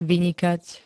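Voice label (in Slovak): "vynikať", Slovak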